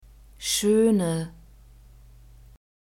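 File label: "schöne", German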